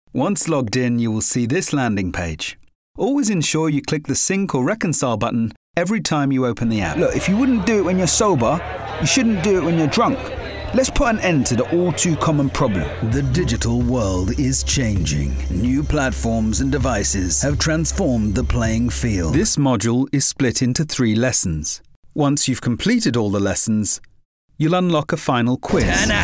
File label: english british